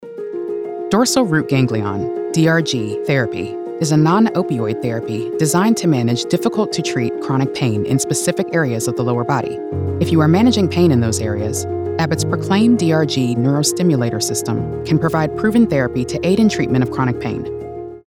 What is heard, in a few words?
Explanatory Medical Informative Corporate Narration; Proclaim DRG Therapy